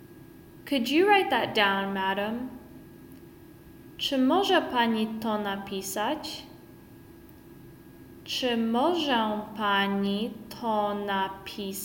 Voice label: Could you write that down Madam, Polish; the talking polish phrasebook